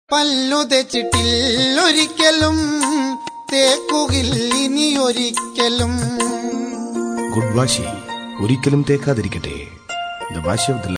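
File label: Malayalam comedy ringtone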